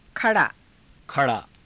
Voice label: "kha raa", Hindi

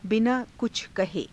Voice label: binaa kucha kahe, Hindi; बिनाकुछकहे; 何もいわずに

